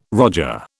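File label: "roger", British English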